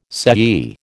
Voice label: "sei", American